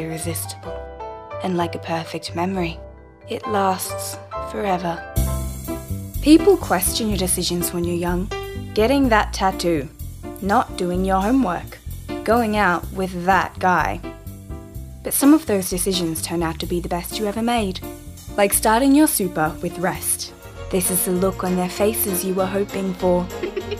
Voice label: Voice Over